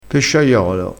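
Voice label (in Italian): "pesciaiolo", dialetto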